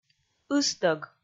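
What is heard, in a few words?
"ыстӧг", Komi, female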